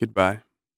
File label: Goodbye Male Speech